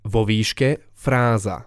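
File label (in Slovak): "vo vyske", Slovak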